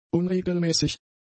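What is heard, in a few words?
"unregelmäßig", German; adjectives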